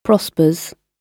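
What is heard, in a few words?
"prospers", British English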